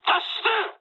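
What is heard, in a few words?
bailout mood high, speech japanese; War Thunder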